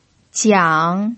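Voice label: "jiang" third tone, pinyin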